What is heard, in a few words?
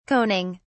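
"coning", British English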